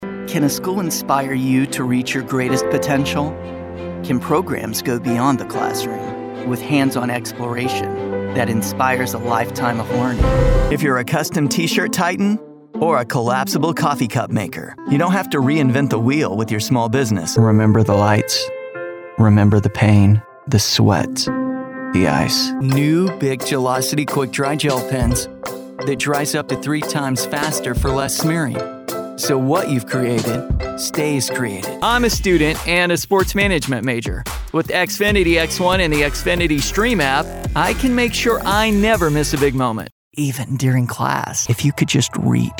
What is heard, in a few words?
VO Commercial